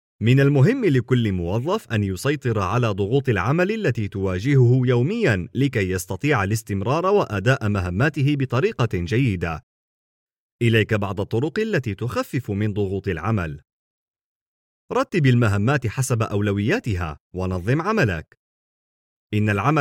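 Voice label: Arabic, eLearning; GoLocalise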